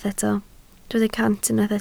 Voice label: "cant", Welsh

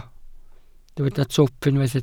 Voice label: "twp", Welsh

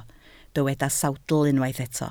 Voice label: "sawdl", Welsh

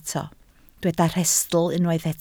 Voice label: "rhestl", Welsh

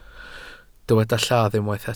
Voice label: "lladd", welsh

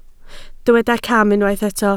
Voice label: "cam", Welsh

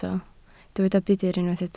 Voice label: "budr", Welsh

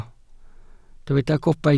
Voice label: "gobaith", Welsh

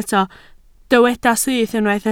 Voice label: "syth", Welsh

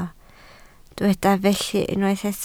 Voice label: "felly", Welsh

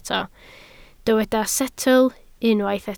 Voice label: "setl", welsh

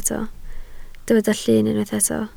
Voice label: "llun", Welsh

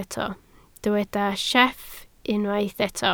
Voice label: "sieff", Welsh

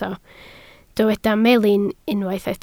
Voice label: "melin", Welsh

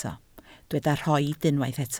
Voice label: "rhoid", Welsh

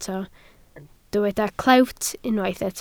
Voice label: "clewt", Welsh

